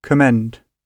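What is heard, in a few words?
"commend", British English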